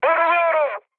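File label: Turkish aircraft damaged, mood med, speech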